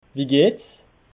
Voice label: "wie gehts", alsace

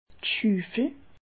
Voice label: "schufel", alsace

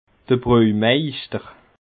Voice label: "brojmeischter", alsace

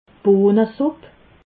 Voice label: "bohnesupp", alsace

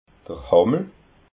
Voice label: "hammel", alsace

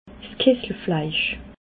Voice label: "kesselfleisch", alsace

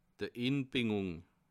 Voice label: "inband", alsace

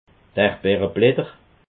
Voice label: "erbeereblatter", alsace

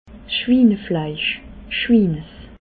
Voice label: "schwinefleisch", alsace

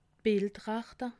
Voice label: "bildrachte", alsace

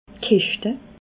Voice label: "keschte", alsace

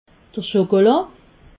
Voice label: "schokola", alsace

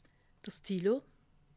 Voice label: "stylo", alsace

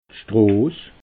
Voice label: "stross", alsace

